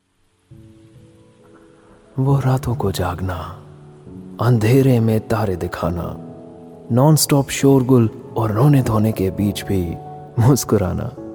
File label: TVC2 hin